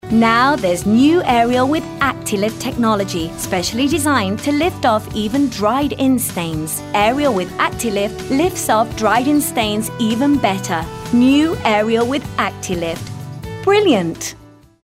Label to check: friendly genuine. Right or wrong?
Right